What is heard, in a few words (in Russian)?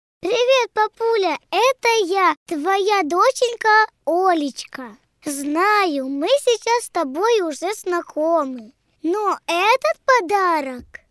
devochka 7 let Ruu